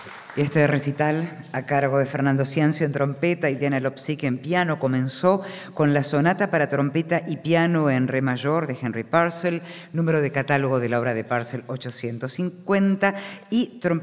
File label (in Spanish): locutora